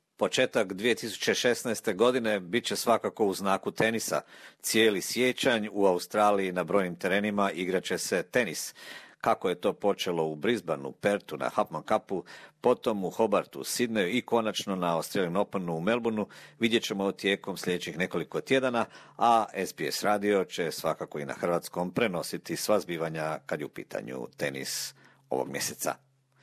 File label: croatian; tennis to replace soccer in january